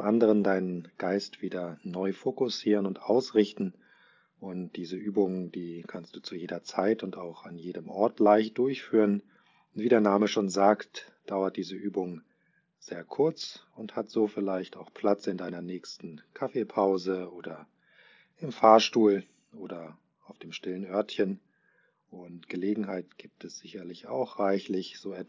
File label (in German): Intro Kurzmeditation Atempause